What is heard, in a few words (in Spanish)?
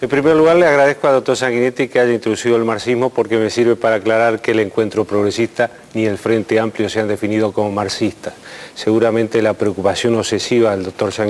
Debate